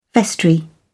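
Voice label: "vestry", British English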